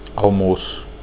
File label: lunch, portuguese